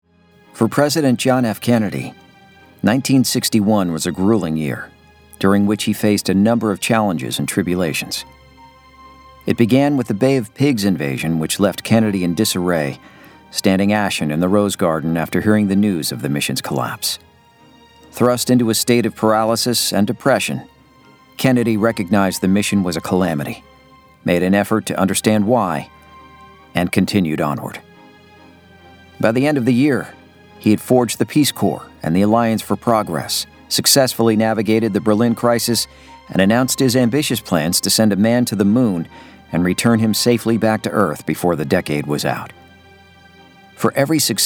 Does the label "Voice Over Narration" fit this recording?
Yes